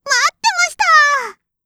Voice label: まーってました～！, 客席

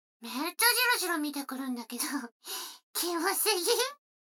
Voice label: 女の子台詞, ASMR

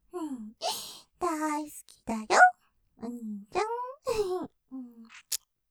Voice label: 余韻 大好きだよ、お兄ちゃん♪; ステレオ

